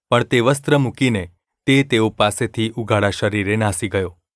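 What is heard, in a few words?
gu ulb mrk, Gujarati; mrk 14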